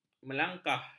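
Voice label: "melangkah", Malay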